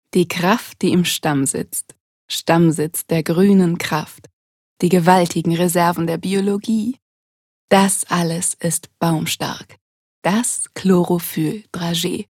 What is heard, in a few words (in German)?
Werbung Sanft